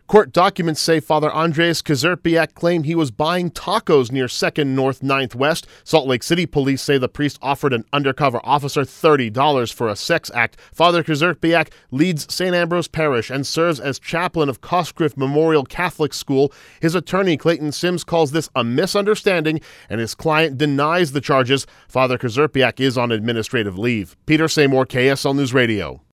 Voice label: VOICE PSA; PRIEST TACOS; priest charged soliciting prostitution